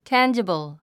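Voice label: "tangible", English word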